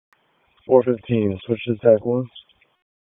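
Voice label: Police Southeast District Dispatch; Raleigh; p25; wake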